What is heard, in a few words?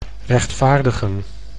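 "rechtvaardigen", Dutch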